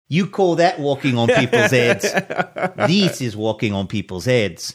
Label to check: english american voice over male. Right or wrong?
Wrong